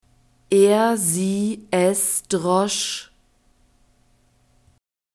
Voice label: "er sie es drosch", German; verbs past tense irregular

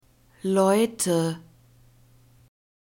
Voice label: "leute", german

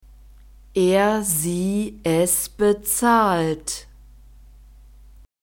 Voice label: "er sie es bezahlt", German

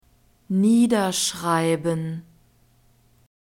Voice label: "niederschreiben", german

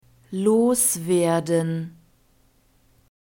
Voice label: "loswerden", german

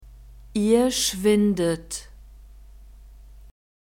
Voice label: "ihr schwindet", German; verbs present tense irregular

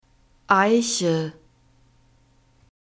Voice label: "eiche", German; phonetic script grouped consonants

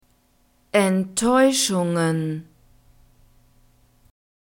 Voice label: "enttaeuschungen", German

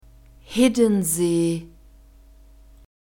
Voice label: "hiddensee", German; nouns gender feminine

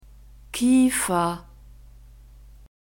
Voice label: "kiefer", german; nouns gender feminine

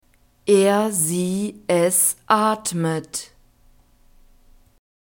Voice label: "er sie es atmet", German; verbs present tense regular

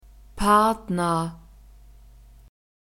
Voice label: "Partner", German

